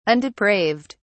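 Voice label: "undepraved", British English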